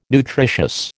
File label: "nutritious", American